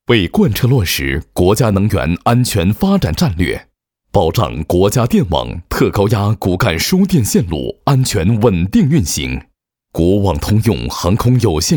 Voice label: 男 党建; 为贯彻落实国家能源安全发展战略

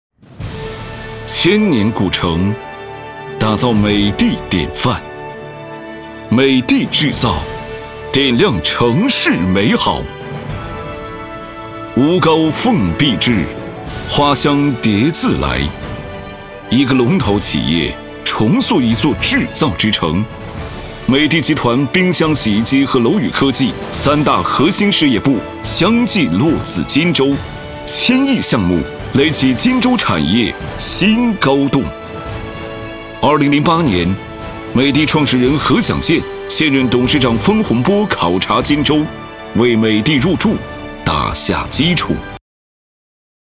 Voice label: 男 宣传片; 美的

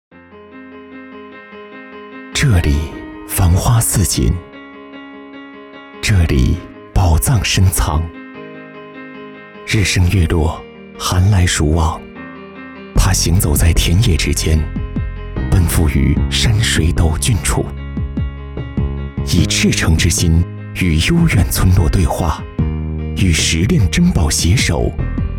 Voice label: 男 广告 走心旁白; 中国邮政

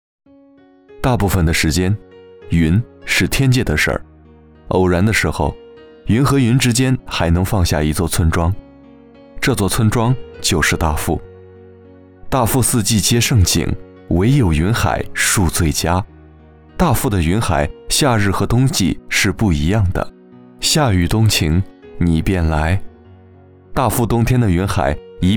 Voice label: 男 感情叙述

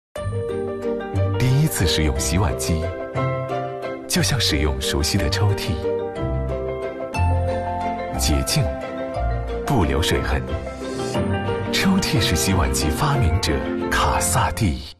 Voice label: 男 广告 科技产品类; 卡萨帝抽屉式洗碗机

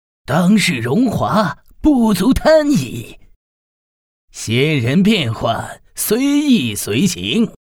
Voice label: 男 中年 卑鄙尖酸

